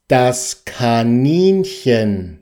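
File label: "kaninchen", German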